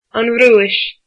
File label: Russia, irish